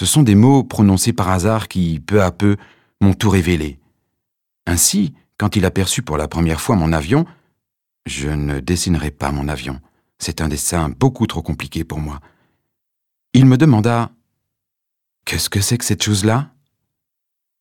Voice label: Lesung le petit prince

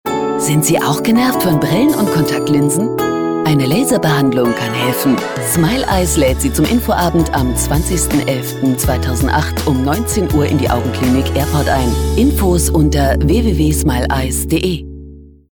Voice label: Radiowerbung; smileeyes

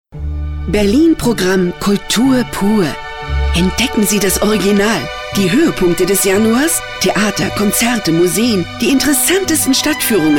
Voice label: Radio Programm; Berlin

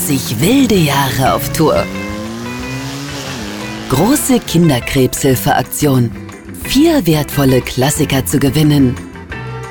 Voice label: Radiowerbung Oldtimer

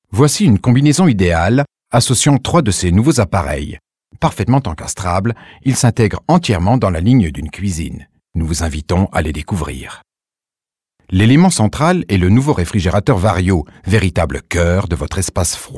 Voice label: Image Film

